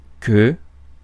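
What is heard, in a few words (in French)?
"queue", French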